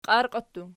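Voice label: "qiarqii" plural, Archi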